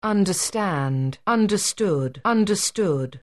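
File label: "understand", English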